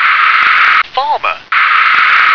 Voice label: "farmer", English